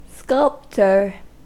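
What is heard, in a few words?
"sculptor" English, US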